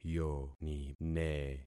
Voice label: "yonine", concat syllables; Affixing experiment